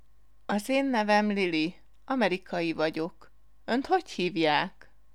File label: Introduction in Hungarian formal